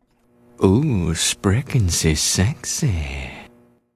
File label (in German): sprechen sexy, german